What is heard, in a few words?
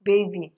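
"baby", Nigerian Pidgin, female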